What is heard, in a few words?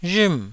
"rhm4", taiwanese